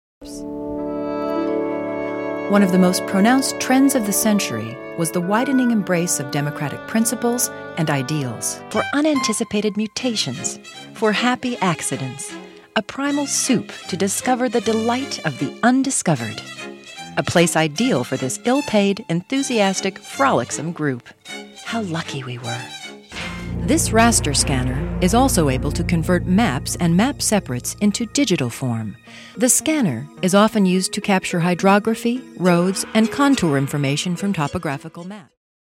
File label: Narrations